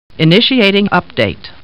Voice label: Star Trek Computer Voice; StarTrekLCAR